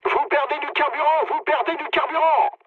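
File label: French tank damaged mood low; War Thunder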